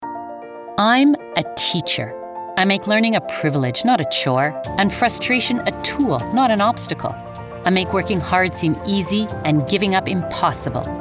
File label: commercial